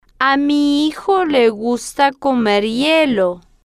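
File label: a mi hijo le gusta comer hielo, Spanish, female, slow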